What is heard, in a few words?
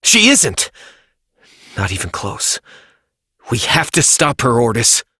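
Voice, English; New War; Quarters; Operator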